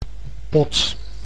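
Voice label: "pot", Dutch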